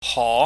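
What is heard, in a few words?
"ho", Cantonese, mid rising tone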